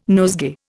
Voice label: "nosegay", Indian